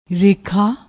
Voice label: "rekha", Punjabi